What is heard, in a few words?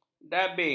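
"dabing", Malay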